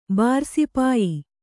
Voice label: "ಬಾರುಸಿಪಾಯಿ", Kannada

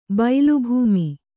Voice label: "ಬೈಲುಭೂಮಿ", Kannada

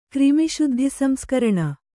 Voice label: "ಕ್ರಿಮಿಶುದ್ಧಿಸಂಸ್ಕರಣ", kannada

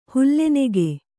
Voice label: "ಹುಲ್ಲೆನೆಗೆ", Kannada

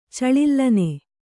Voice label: "ಚಳಿಲ್ಲನೆ", kannada

